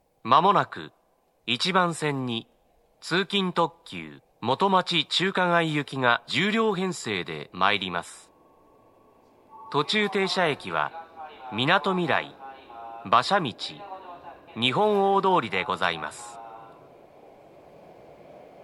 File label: commuter limited express, station; yokohama; motomachi-chukagai; tokyu-corporation